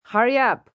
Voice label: Hurry Up F, female, English; Talking; Calls, action; VoiceBox